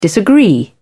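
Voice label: "disagree", British English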